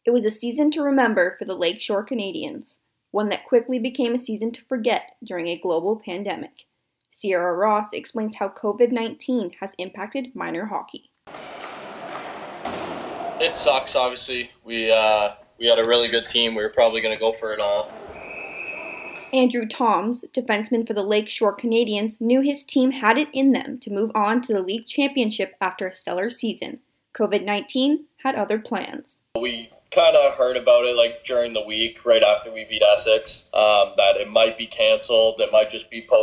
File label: Radio